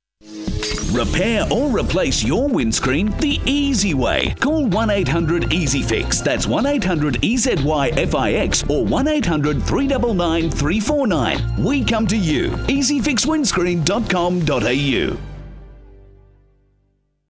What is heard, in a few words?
radio ad; ezyfixwindscreens